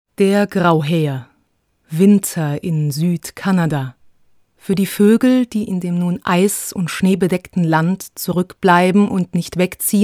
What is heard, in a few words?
GERMAN